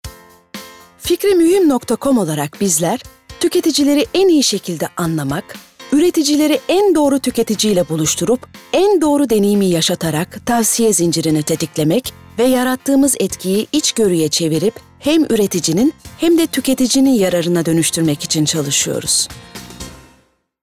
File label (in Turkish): Tanitim